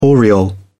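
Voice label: "aureole", British English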